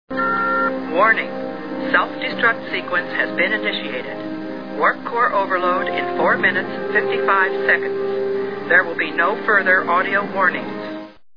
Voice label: Computer Destruct sequence